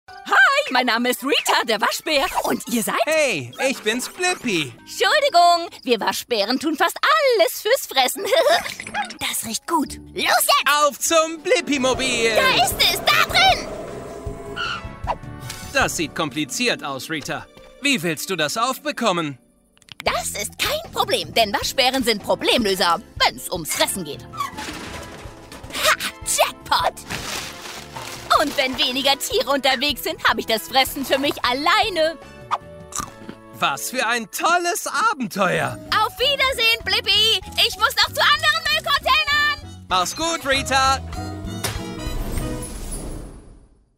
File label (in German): hoerspiel